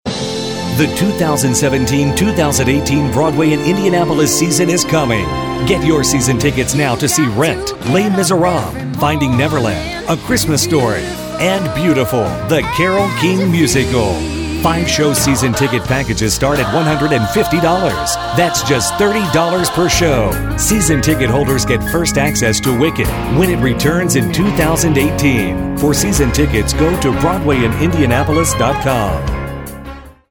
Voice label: Radio